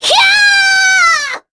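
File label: Vox Casting, Korean; Sonia; kings raid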